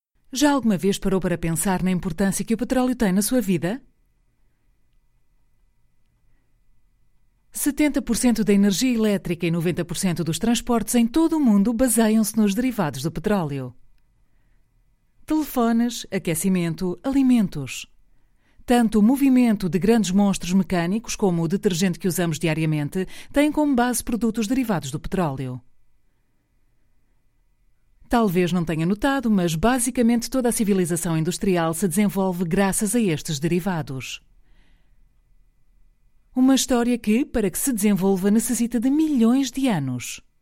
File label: Portuguese F Corporate